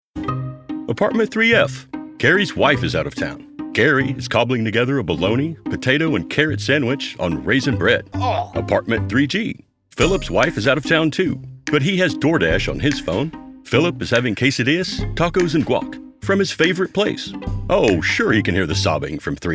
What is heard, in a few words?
Explainer